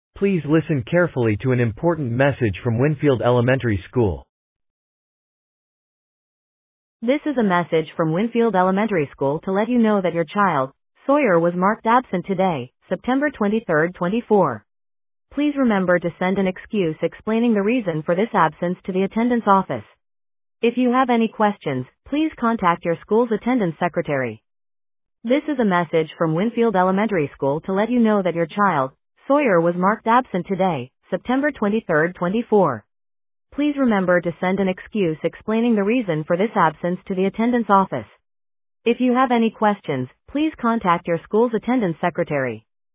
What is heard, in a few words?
Voicemail